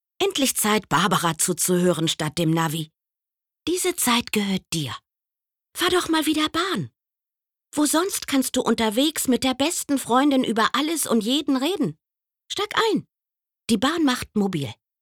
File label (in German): Werbung